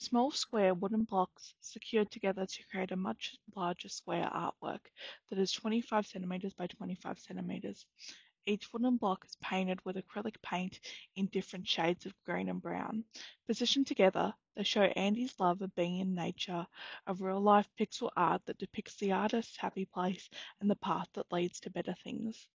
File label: Audio description - Forest Path; Living My Best Life Art Exhibition; audio description of the artwork